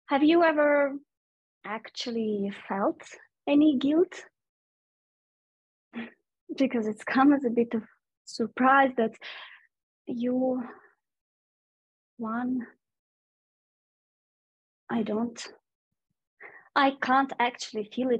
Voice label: Monologue Boys